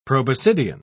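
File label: "proboscidian", British English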